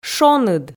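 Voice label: "шоныд", Komi, female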